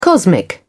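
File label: "cosmic", British English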